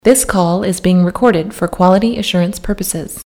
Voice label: Female Voice mono